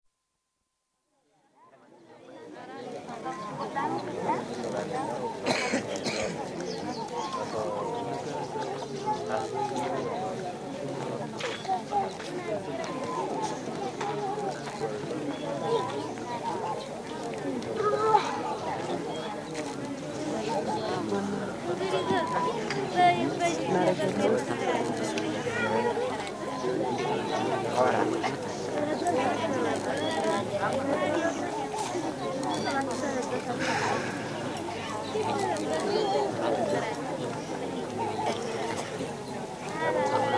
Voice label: Ethiopia: Pawi, muted chatter (Amharic), with birdsong & a creaking chair; Outdoor Sound Effects; BBC